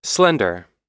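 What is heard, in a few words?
"slender", American English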